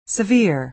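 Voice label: "severe", American English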